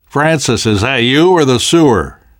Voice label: world hospital, namvet, survivor voice